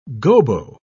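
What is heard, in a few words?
"gobo", British English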